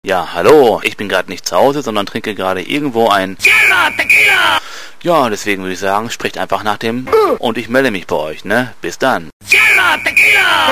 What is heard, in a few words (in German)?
anrufbeantworter; Anrufbeantworter-Sound: Sierra Tequila